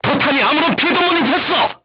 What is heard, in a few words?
voice message armor not pierce, korean, crew tanks